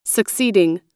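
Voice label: "succeeding", American English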